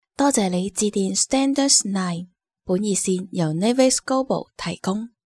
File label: cantonese female; dictor